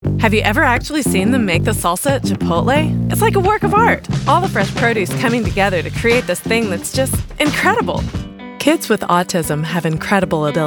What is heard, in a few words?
Commercial